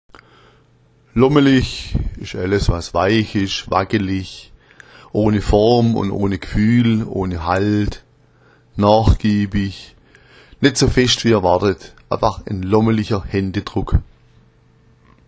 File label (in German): "lommelich", schwaebisch